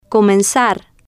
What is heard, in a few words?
"comenzar", spanish